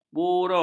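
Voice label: "burung", Malay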